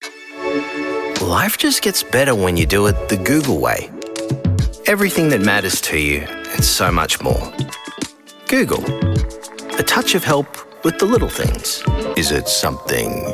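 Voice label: Australian English, male, Commercial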